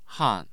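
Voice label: "han", Mandarin Chinese, tone 4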